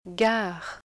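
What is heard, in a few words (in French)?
"gare", French; ɡ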